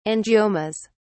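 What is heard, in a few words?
"angiomas", British English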